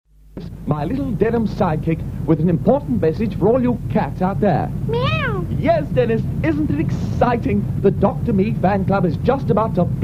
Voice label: radio ad